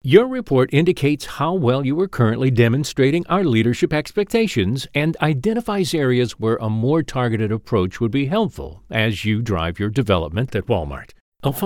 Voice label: Corporate